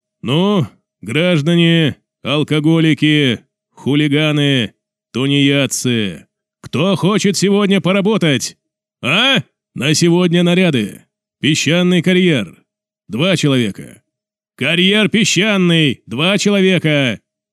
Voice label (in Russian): surovyj milicioner; basov; operaciya y